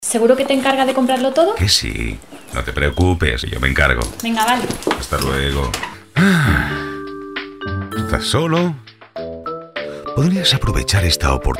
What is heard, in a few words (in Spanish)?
Spanish Voice Over Comercial